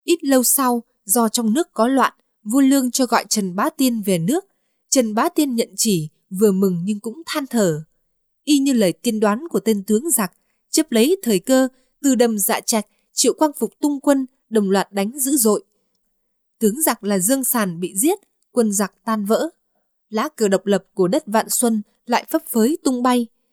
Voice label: vietnamese female